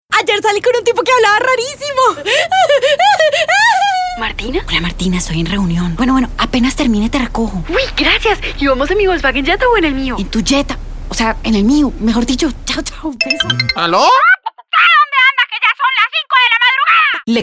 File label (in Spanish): Personajes